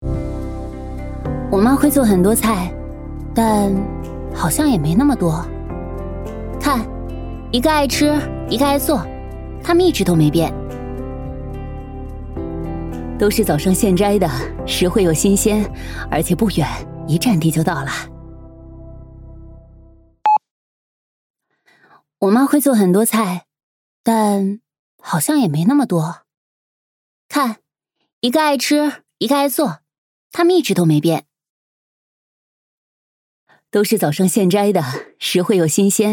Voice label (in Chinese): 女 女儿和妈妈